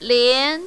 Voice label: "lian2", mandarin, female